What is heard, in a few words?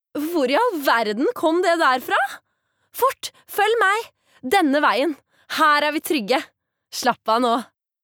no dub, norwegian, female